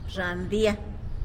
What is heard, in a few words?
"zhandia", tlacolula; watermelon